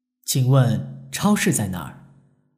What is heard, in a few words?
"qingwen", Chinese